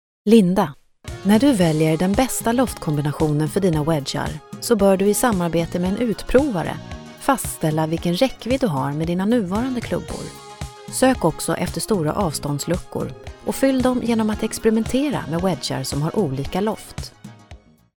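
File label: Swedish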